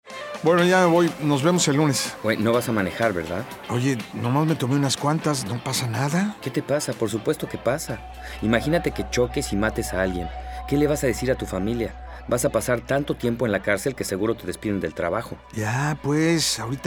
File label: Drive Sober Radio Spanish Edition; 2021 NHTSA; KY Drive Sober NHTSA Radio Spanish Edition PSA; Kentucky